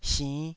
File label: "sinn5", Taiwanese